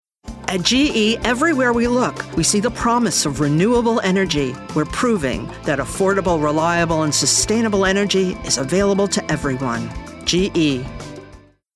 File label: Commercial; GE